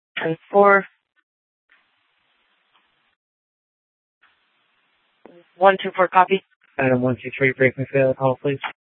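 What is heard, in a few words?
Sheriff, Parks, Sheriff radio scanner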